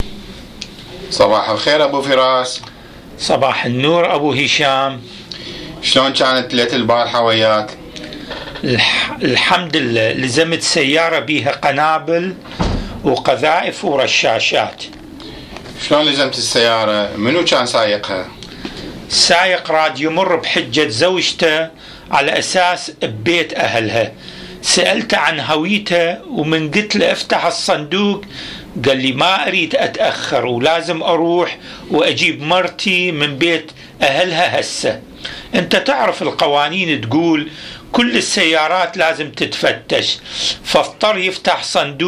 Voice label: Dialogue during changing guard, Iraqi Dialect